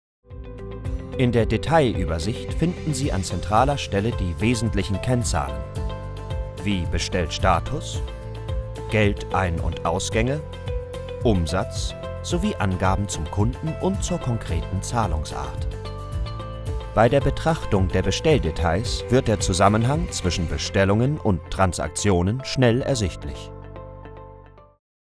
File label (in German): E-Learning; Heidelpay